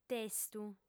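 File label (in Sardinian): "testu", female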